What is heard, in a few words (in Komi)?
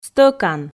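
"стӧкан", Komi, female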